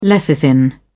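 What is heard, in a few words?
"lecithin", British English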